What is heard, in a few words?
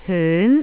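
"hyun2", Jyutping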